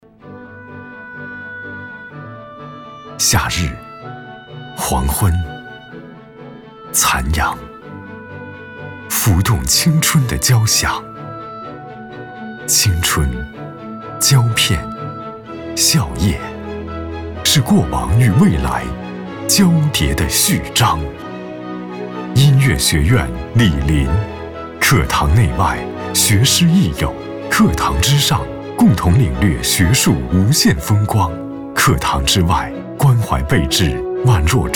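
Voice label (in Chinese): 男国大音-城市; 福州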